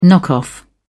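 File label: "knock off", English, British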